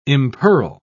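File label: "impearl", British English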